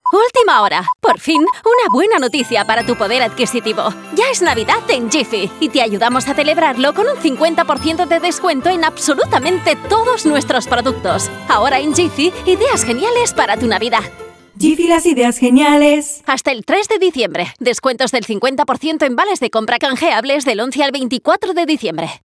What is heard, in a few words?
spanish announcements; professional Spanish and Catalan voice actor